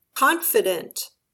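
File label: "confident", English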